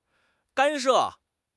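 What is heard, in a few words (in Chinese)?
"干涉", Mandarin Chinese; HSK6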